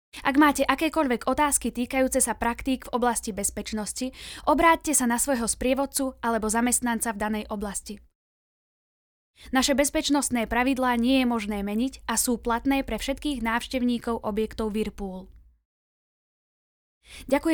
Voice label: Voiceover; WHIRPOOL